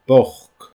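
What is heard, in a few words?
"bochd", Scottish Gaelic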